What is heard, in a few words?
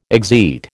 "exeat", American